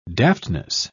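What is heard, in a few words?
"daftness", British English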